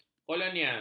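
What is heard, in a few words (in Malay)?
"kolonial", Malay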